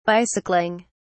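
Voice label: "bicycling", British English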